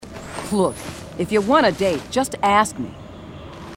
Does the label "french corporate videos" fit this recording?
No